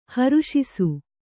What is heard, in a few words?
"ಹೊರಸೂಸು", Kannada